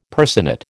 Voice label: "personate", American